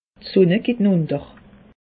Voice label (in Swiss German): "sunn geht unter", alsace; meteo